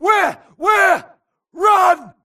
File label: grunt grenade response; imc; Titanfall 2